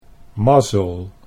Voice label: "mazzel", Dutch